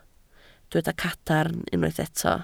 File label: "cadarn", Welsh